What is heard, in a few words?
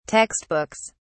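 "textbooks", English, British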